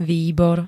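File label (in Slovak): "výbor", Slovak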